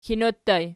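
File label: "kino" ergative plural, Archi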